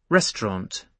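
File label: "restaurant", British English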